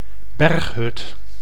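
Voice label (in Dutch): "berghut", Dutch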